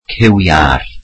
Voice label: welsh chicken